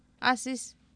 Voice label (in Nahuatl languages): "AsIs", Oapan, female; Oapan minimal pairs 1998